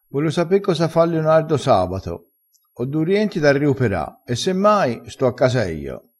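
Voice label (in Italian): "se mai", dialettale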